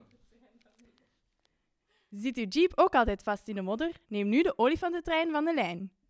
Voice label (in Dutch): Reklame